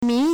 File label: "min2", Chinese